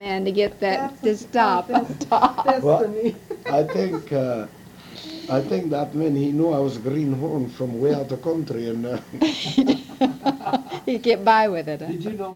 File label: Oral history with 74 year old white male Mesa County City Colorado, american english dialect recordings